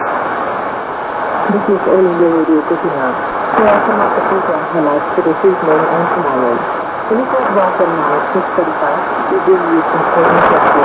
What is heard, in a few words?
Kohima 4850kHz; All India Radio